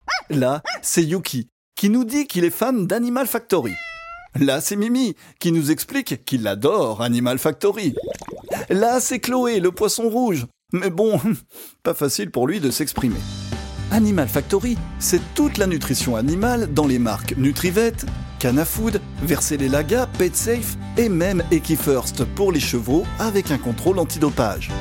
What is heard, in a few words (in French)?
Voix Off PUB; Démo Publicité; Animal-Factory